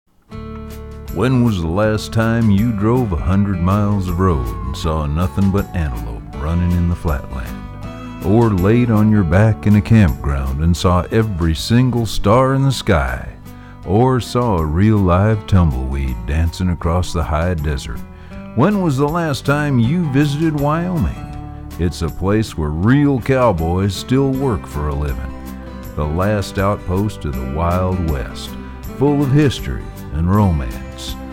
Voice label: Voiceover Commercial; rockabilly-band